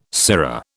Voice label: "sirrah", British

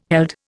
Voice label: "held", Indian

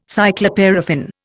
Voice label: "cycloparaffin", Indian

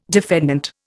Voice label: "defendant", Indian

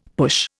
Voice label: "push", Indian; Dictionary

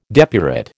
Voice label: "depurate", American

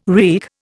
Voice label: "wreak", Indian

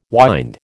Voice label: "wynd", American

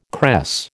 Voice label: "cress", American

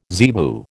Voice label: "zebu", American

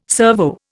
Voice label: "servo", Indian

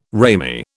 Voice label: "ramie", British

